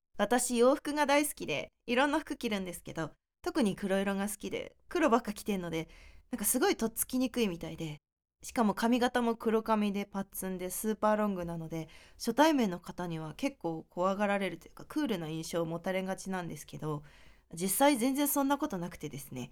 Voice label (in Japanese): フリートーク